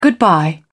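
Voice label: "goodbye", British English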